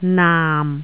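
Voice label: "naam4", Cantonese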